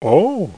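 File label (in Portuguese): "oh", Portuguese; Semitour Perigord; Lascaux II; QUIZ FR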